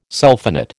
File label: "sulphonate", American